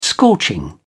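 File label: "scorching", British English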